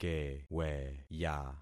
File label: "keweya", concat syllables; Affixing experiment